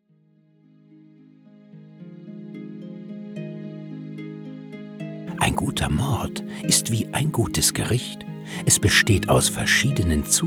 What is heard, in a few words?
voice over film; tea time; LOFT VOICES